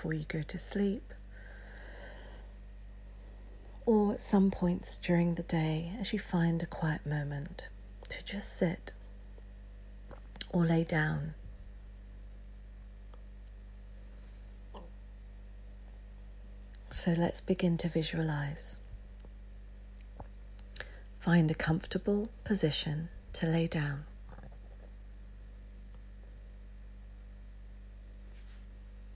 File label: GUIDED MEDITATION